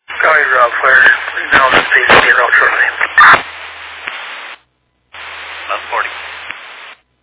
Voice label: Fire Dispatch